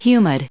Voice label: humid, us